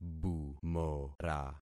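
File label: "bumora", concatenated syllables; Affixing experiment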